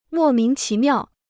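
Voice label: "莫名其妙", Chinese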